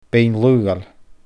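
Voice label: "Beinn Laoghail", Scottish Gaelic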